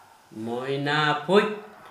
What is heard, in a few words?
Gta Birds List mynah hill, Dialect: Hill; Gracula religiosa, hill mynah